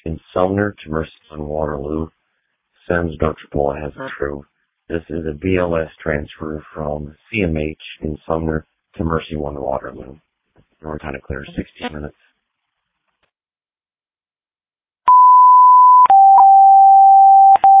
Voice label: Waverly Ambulance, dispatch

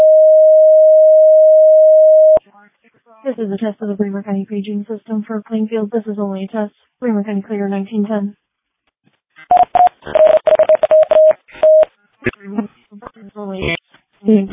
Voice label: Fire, dispatch

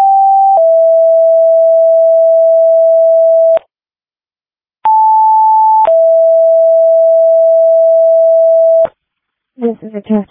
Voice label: Fire, dispatch; Janesville

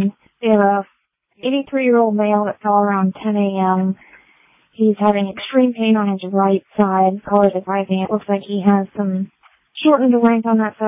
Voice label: Waverly Ambulance, dispatch

